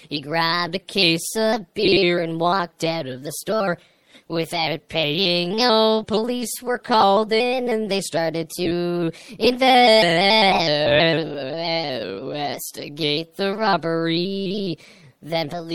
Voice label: autotune the local news